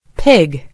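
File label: "pig", American English; gcompris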